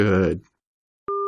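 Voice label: "good", American English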